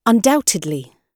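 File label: "undoubtedly", British English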